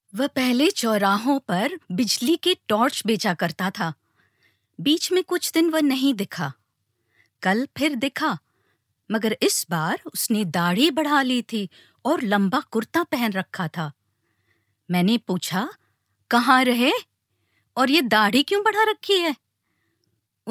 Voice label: Hindi language